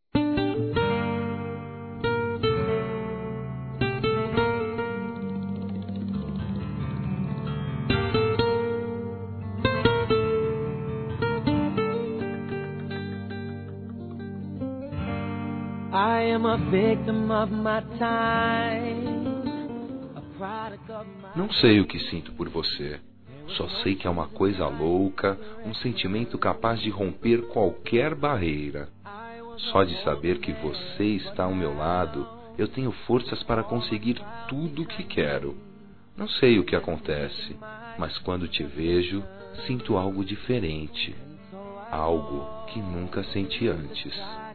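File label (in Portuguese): ROMANTICA, Telemensagens, Românticas masculino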